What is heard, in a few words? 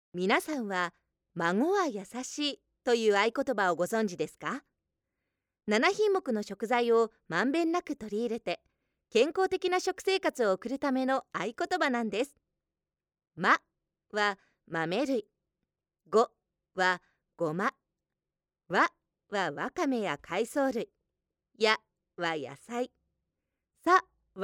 Narration